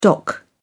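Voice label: "dock", British English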